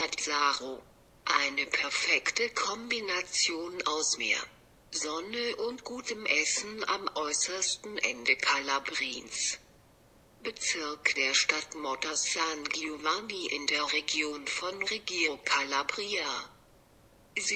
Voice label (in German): Deutsch